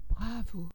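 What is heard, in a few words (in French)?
"bravo", French; sons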